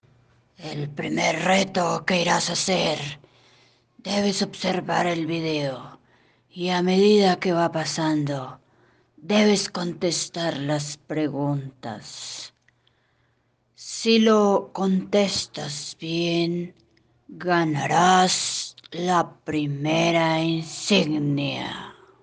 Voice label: audio bruja

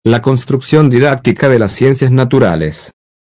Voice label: audio voz narrador inicio

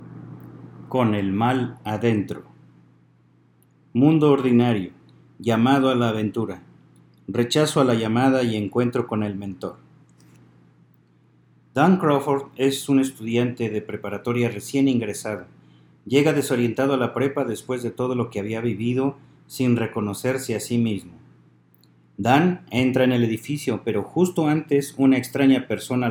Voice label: inicio narrador

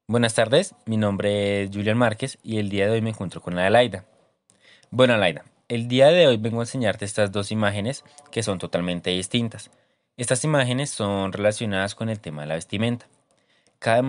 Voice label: entrevista estética

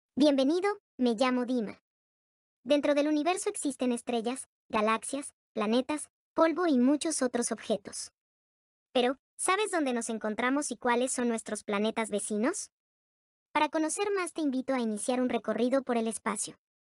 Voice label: Voz Off

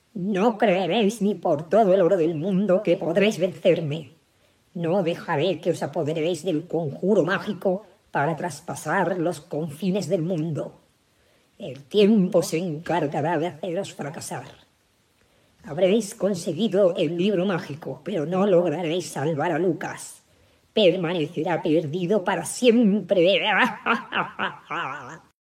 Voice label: Voz Bruja Palabreja

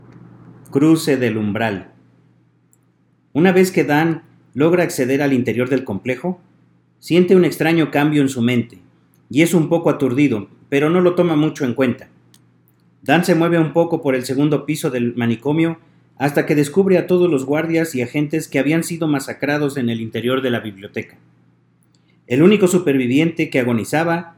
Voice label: narrador dentro del manicomio

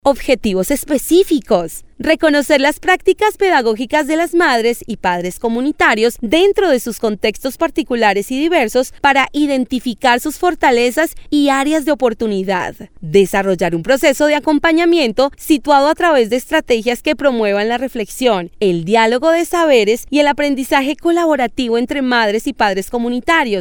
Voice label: VOZ FEMENINA; GUION MAS COMUNITARIO; OBJETIVOS ESPECIFICOS

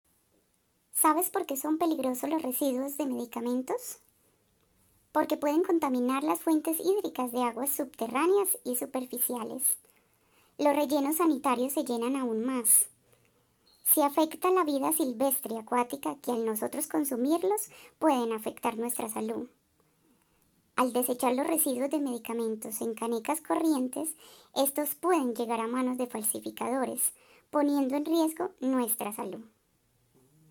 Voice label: VOZ INFOGRAFIA

